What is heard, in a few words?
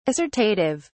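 "assortative", British English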